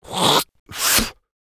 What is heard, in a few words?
taunts, fr; Sniper